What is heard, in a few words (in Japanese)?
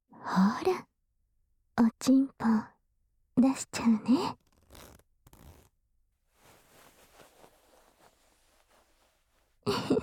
囁き マッサージ, ASMR; 囁きオナホコキマッサージ